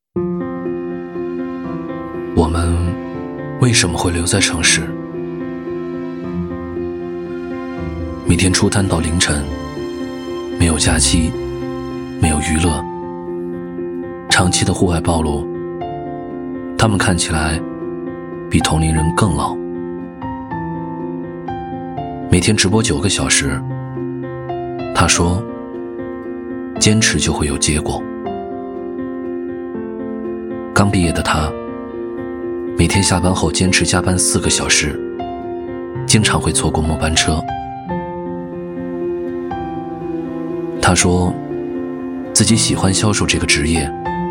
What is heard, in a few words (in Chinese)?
男 深情旁白